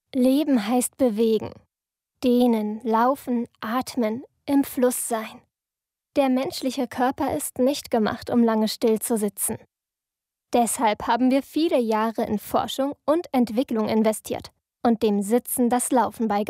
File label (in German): Imagefilm; LOFT VOICES